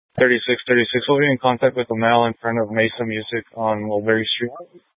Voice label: Police Dispatch, scanner; Police 1 Dispatch